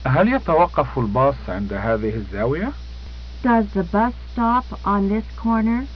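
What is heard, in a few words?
arabic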